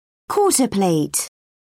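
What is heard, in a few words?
"quarter plate", British English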